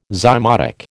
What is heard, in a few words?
"zymotic", American